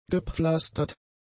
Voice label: "gepflastert", German; adjectives